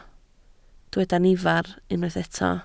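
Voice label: "nifer" Welsh, spoken word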